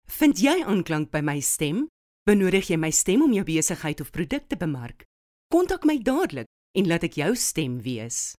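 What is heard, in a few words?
Afrikaans Conversational South African Neutral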